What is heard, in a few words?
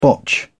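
"botch", British English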